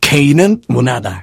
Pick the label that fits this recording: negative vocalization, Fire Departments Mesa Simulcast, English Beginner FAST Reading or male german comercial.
negative vocalization